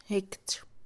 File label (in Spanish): saborear, Mixe, Mujer; Percepción; INALI